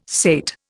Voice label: "sate", Indian